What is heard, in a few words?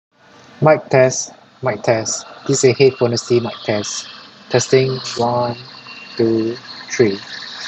Noisy; Jabra Elite